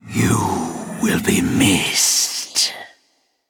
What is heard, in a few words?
Male Spirit